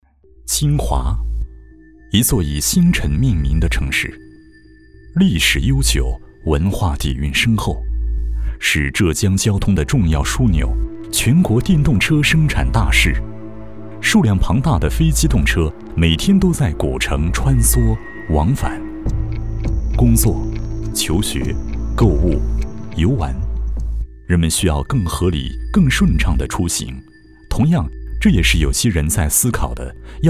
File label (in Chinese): 男-纪录片-记录自然风格